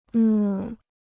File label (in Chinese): "呢", Chinese